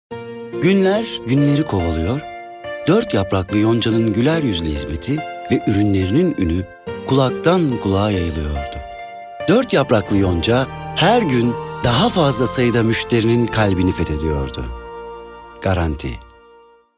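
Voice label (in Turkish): sicak samimi, seslendirme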